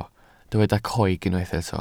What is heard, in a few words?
"coeg", Welsh